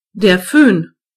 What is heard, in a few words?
"hair dryer", de; gcompris